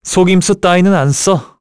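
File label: Vox Skill, Korean; Esker; kingsraid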